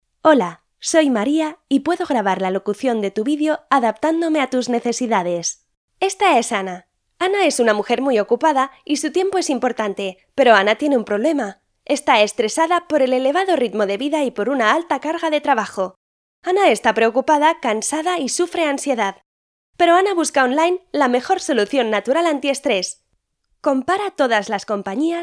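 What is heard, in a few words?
voice sample Spanish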